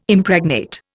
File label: "Impregnate", Indian